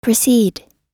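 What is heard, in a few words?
"precede", American English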